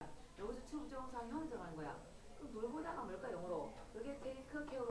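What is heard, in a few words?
"book", spoken word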